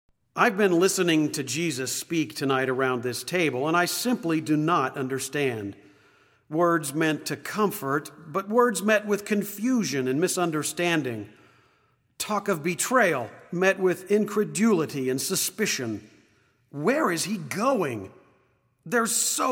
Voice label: Monologue; Thomas; living last supper